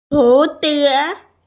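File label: "hú dié", Mandarin Chinese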